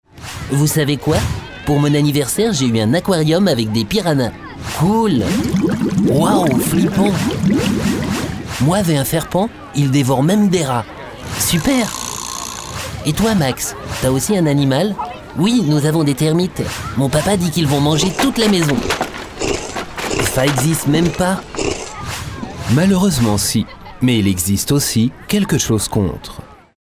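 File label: French Charge Character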